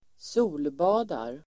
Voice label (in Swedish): "solbadar", Swedish